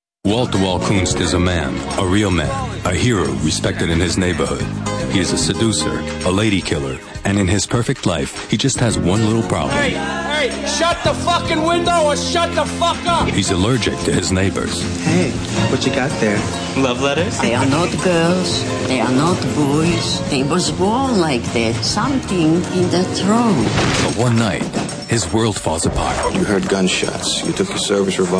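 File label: Voix Off, bilingue Américain Français; Flawless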